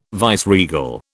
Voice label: "viceregal", British